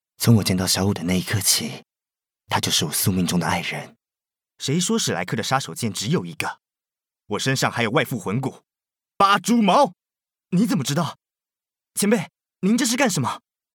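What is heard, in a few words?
Taiwan, male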